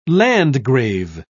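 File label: "landgrave", British English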